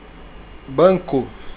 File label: bank, portuguese